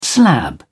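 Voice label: "slab", British English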